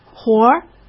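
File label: "活儿", Mandarin Chinese; hsk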